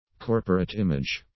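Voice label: "corporate image", English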